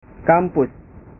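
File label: "kampus", Indonesian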